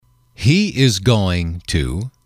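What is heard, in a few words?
He is going to, male, English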